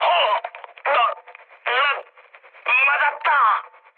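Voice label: Korean damaged pilot mood high; speech; War Thunder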